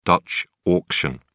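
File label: "dutch auction", British English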